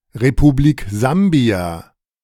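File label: "Republik Sambia", German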